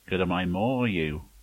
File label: "thanks", Manx